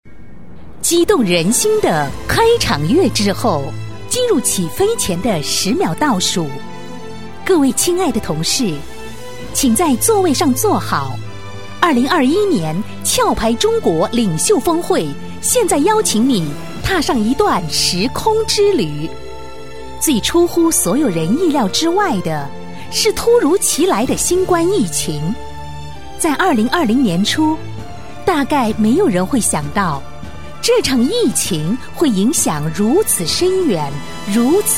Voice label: 女 宣传片 大气朗读; 壳牌

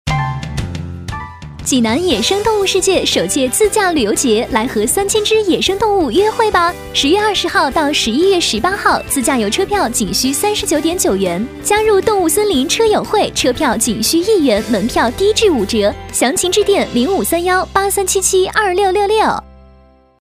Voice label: B女 宣传片; 济南野生动物世界

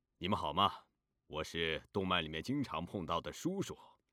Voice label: B男 角色 叔叔男

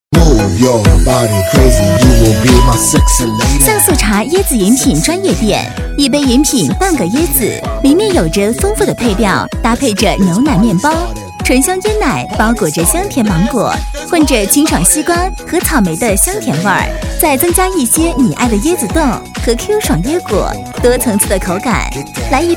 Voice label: 女 促销 奶茶店

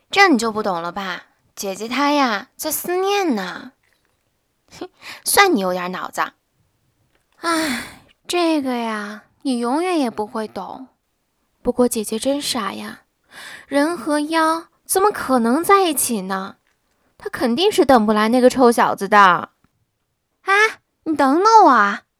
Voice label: B女 角色台词小段妖精妹妹

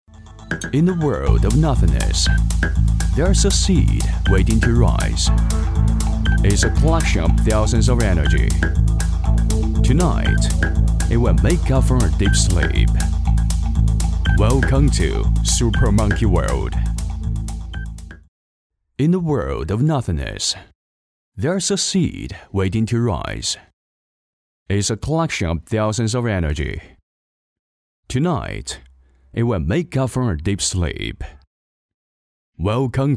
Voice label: 男 英语 品质广告; super-monkey-world

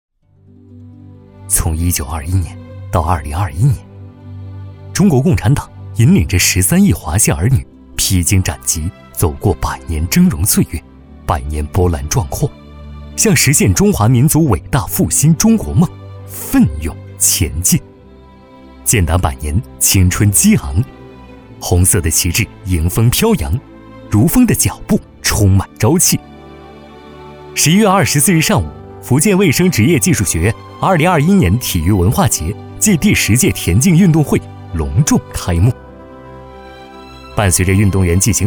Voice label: 男 宣传片 运动会配音稿

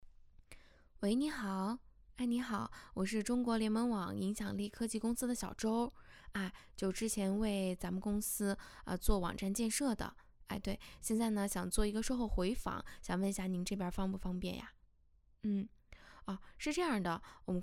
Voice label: 女 电销外呼 超素版

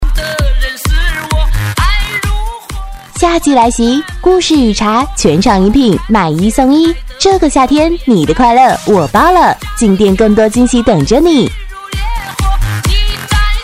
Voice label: 夏季 配乐版, 女

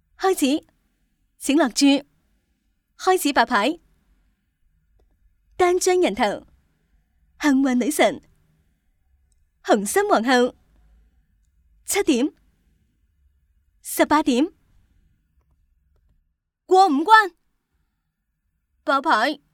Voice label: 女 粤语; 棋牌

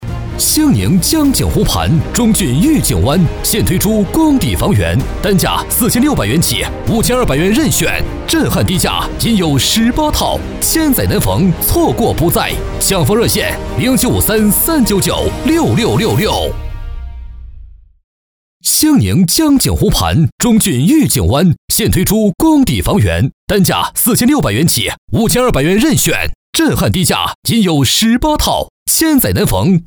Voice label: 男, 广告-激情大气-地产; 地产中骏御景湾

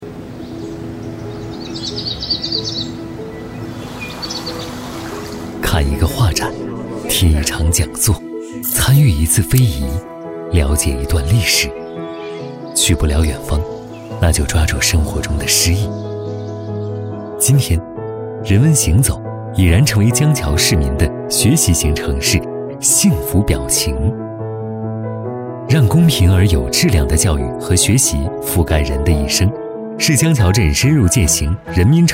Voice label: 专题片, 男; 江桥镇市民终身学习人文行走纪实

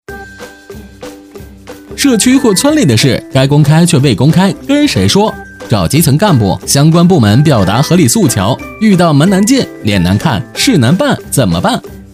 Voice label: 男; 飞碟说MG; 纪委

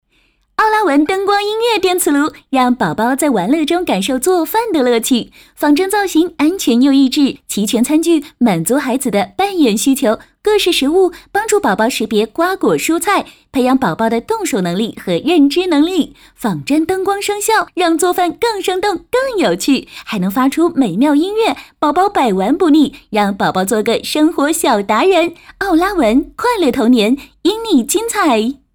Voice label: 女 广告 配音; 奥拉玟

